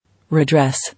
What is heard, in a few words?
"Redress", English